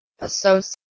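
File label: "h2sous", Proto-Indo-European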